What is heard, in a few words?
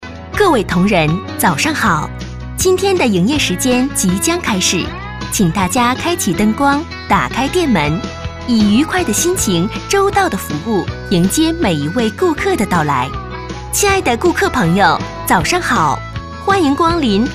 locutora china chinese mandarin voiceover